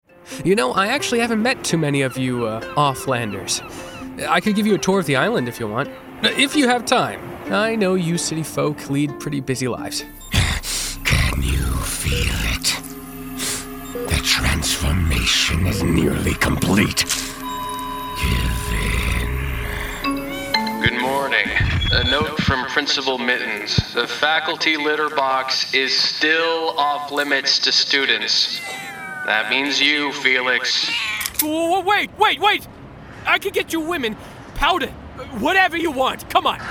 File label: Animation, en-NZ